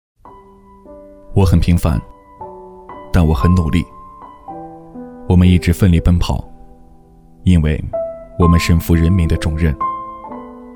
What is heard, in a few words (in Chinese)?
男 模仿; 模仿王凯